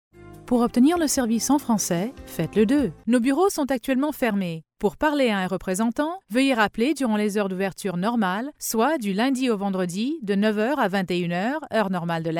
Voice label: telephony French